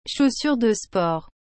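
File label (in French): "chaussures de sport", French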